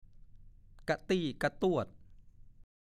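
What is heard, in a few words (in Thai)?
"กะติ๊บกะต้วด" ภาษาโคราช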